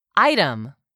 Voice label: "item", English; 3000 words